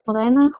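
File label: "wlh1neh2", Proto-Indo-European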